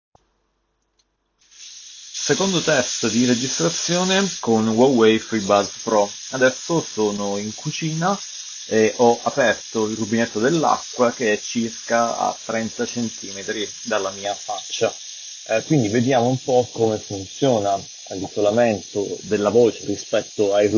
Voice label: Huawei FreeBuds Pro Test microfono interno

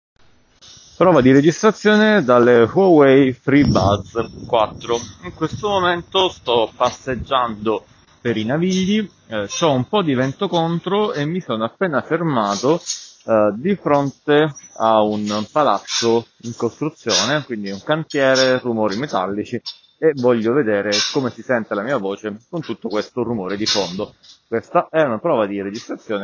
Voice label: Test registrazione freebuds 4

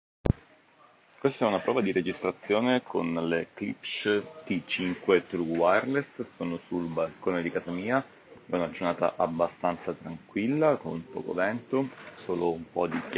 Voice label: Registrazione Klipsch T5 True Wireless

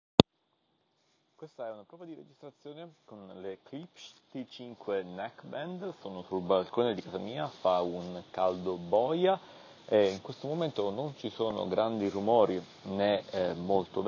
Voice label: sample audio Klipsch T5 Neckband

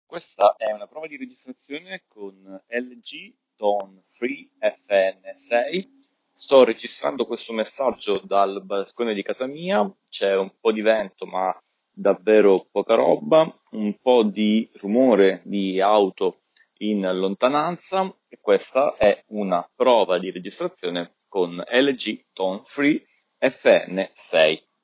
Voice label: Test registrazione LG Tone Free FN6 esterno

